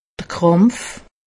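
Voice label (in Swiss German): "krampf", Haut Rhin; medecine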